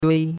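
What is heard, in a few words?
"dui1", Chinese; Cours-de-Chinois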